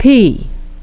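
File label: "pea", English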